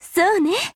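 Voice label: feeling, Japanese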